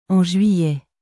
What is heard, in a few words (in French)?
"en juillet", French